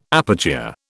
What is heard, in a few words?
"aperture", British